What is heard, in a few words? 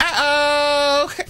"uh oh", cartoon; ooh ahh; uh um